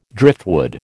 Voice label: "driftwood", American